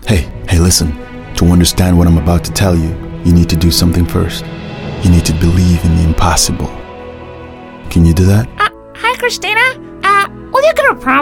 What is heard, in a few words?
Animation VO